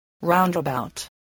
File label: "roundabout", English spelling